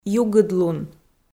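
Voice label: "югыдлун", Komi, female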